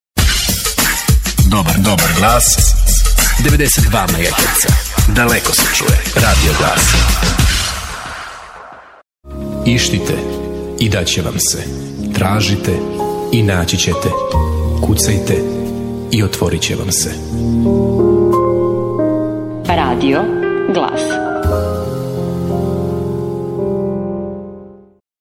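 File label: serbian male commercial voice; voice demos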